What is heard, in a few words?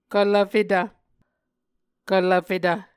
"kvlvfetv", Muscogee